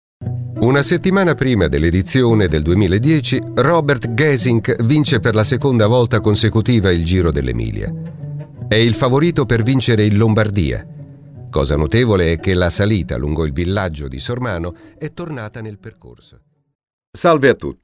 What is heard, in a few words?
Italian narrative; Italian demo narrative